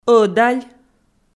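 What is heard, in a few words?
"ӧдалб", Komi-Zyrian, female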